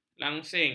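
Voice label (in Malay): "langsing", Malay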